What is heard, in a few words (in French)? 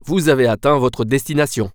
Arrive, french; waze